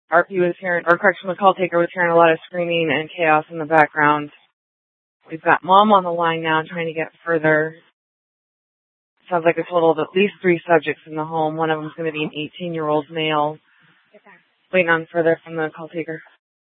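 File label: police dispatch, Scottsdale PD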